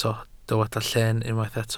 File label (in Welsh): "lleen" Welsh